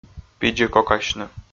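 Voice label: "пидзикокасьны", Komi, male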